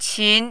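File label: "qin", Mandarin, second tone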